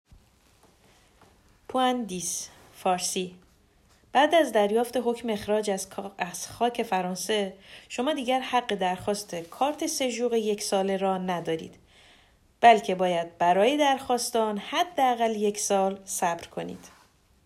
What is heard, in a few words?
farsi